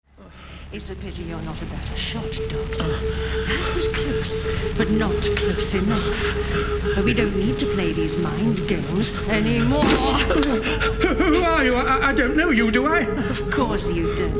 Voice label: Audiodrama; Her Final Flight